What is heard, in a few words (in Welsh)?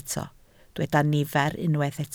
"nifer" Welsh